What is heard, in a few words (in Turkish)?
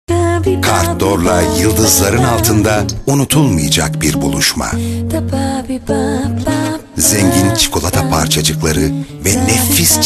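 reklam, Turkish; cartedor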